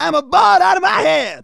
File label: "BORING", Soul Man, Speech